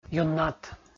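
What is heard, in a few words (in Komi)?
"юннат" Komi, male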